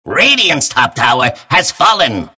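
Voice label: announcer tower fall radiant top; Dota 2; clockwerk announcer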